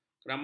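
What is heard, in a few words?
"keramahan", Malay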